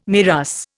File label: "MIRAS", Indian